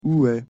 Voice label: où est, pronunciation fr

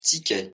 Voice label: pronunciation fr ticket

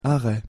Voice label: pronunciation fr arret

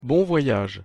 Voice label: bon voyage, pronunciation fr

